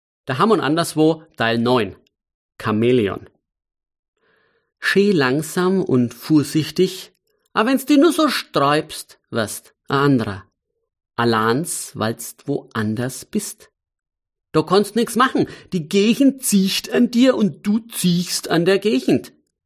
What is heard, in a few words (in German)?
Mundart